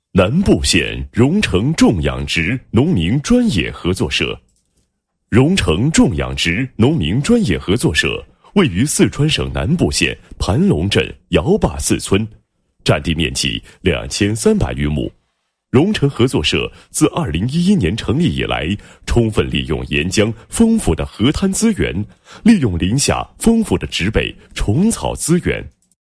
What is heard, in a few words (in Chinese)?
男, 农业企业介绍; 南部县荣城种养殖农民专业合作社